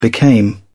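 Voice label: "became", British English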